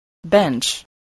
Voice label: "bench", English